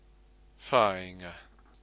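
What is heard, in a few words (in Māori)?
"whainga", Māori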